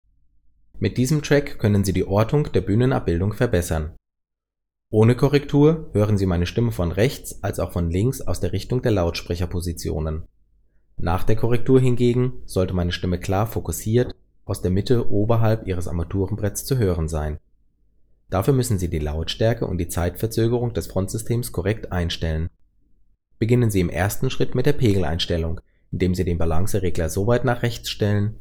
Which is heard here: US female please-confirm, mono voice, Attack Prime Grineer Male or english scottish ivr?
mono voice